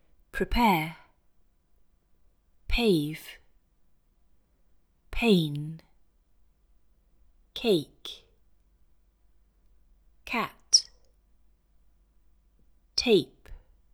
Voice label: Russian; English Pronunciation Errors made by Russian Speakers